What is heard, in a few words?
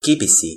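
"kipisi", Toki Pona; linku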